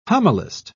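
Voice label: "homilist", British English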